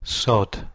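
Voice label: "sod", Proto-Indo-European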